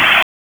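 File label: Raleigh Police North District Tactical, p25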